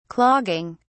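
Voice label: "clogging", British English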